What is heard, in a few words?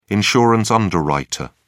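"insurance underwriter", British English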